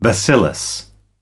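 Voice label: "bacillus", US English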